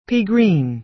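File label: "pea green", American English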